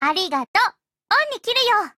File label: FEED JP